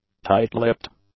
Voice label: "tight-lipped", English; adjectives